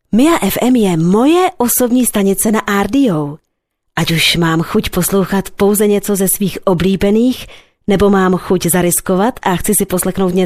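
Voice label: czech female narration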